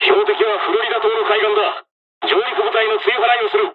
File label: dialog, dialogs japanese; War Thunder